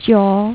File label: "3" female mandarin; MediaTek; HumanVoice